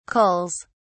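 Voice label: "culls", British English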